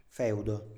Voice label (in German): "feudo", Italienisch; Lehnsgut; Standard-Italienisch